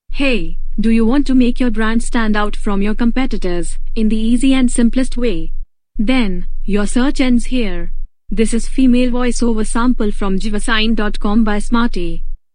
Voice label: AI English Female Voiceover Sample; Jvesign; Smarty